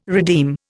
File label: "redeem", Indian